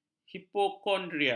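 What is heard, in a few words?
"hipokondria", Malay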